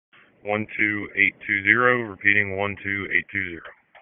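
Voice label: Dispatch, p25; WSO DISP 1; wake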